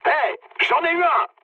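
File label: player killed enemy, mood low, French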